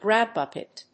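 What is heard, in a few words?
"grab bucket", English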